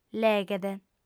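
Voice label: "leghet", Sardinian, female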